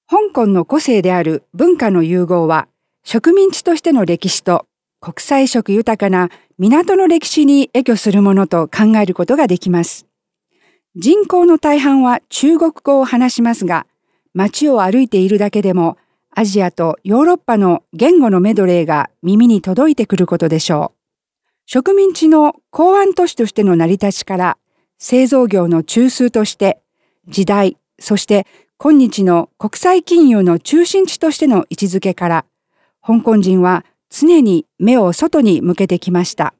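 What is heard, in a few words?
Japanese female corporate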